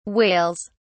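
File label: "whales", British English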